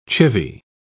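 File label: "chivy", English, British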